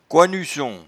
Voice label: "coinuson", patois jura

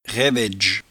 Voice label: "raivaidge", patois jura

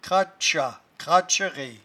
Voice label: "cratchat", patois jura

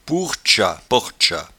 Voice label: "pouertchat", patois jura

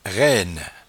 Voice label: "rainne", patois jura

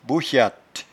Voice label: "bouchatte", patois jura

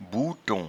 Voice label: "boueton", patois jura

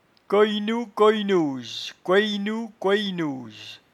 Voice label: "coyenou", patois jura

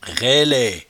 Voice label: "railaie", patois jura

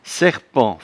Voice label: "serpent", patois jura

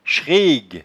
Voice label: "chregue", patois jura

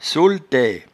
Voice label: "solete", patois jura

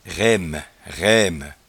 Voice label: "raime", patois jura

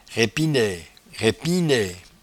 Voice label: "raipinaie", patois jura

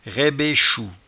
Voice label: "raibechou", patois jura

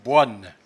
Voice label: "boinne", patois jura

